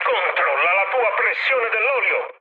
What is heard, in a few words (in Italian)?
damaged oil, mood high, speech it; War Thunder